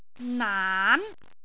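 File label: "naan2", Cantonese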